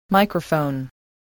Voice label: "microphone", English